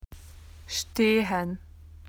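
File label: "to stand", Australian English; PictureDictionary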